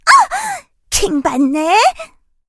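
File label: die, Korean; melodie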